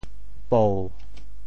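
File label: "pou7", Teochew; 哺; bǔ; 潮州; 白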